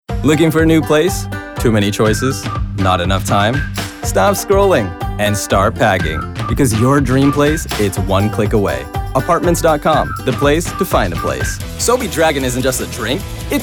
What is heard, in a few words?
vo commercial